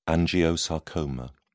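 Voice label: "angiosarcoma", British English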